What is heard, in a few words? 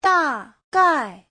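"dàgài", Mandarin Chinese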